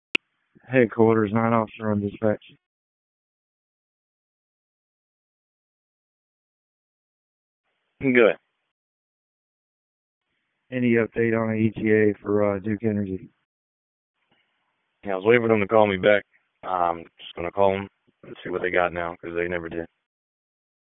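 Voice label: Fire Department HQ Dispatch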